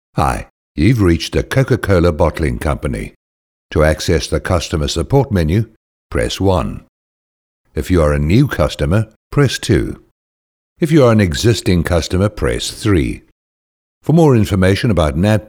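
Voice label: english south african interactive voice response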